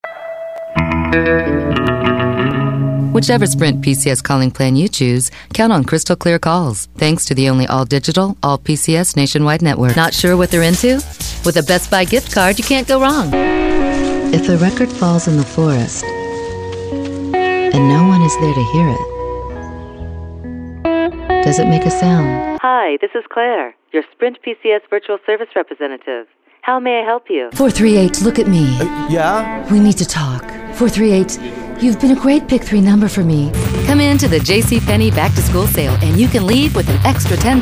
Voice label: English, english females